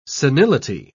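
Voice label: "senility", British English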